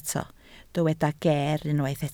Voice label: "geer", Welsh